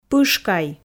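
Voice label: "пышкай", Komi, female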